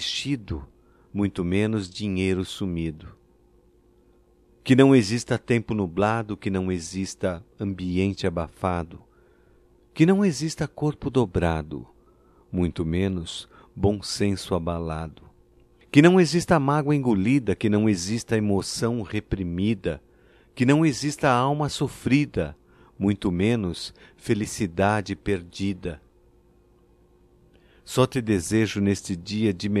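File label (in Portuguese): DIA DO AMIGO, masculino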